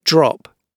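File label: "drop", British English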